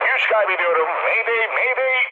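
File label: Turkish damaged engine, mood low, speech; War Thunder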